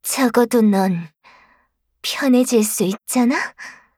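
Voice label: kill Player, Voice Line, Korean; Eternal Return; Garnet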